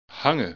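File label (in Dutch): "hangen", Dutch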